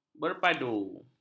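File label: "berpadu", Malay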